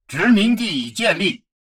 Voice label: advisor notification colony established, chinese; Stellaris